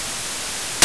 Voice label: pain, radio, bot